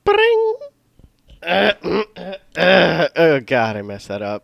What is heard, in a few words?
Ring Outtake, Bad Vocals